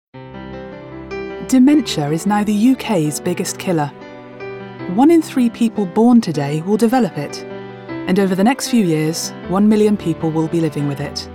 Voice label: Narrative